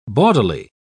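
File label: "bawdily", English, British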